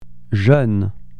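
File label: "jouer", French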